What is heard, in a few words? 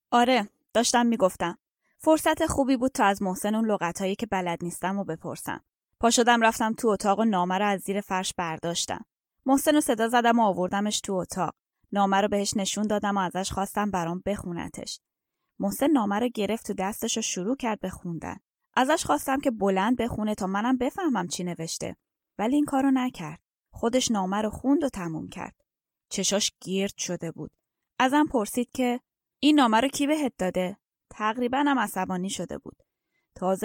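Persian, Audiobook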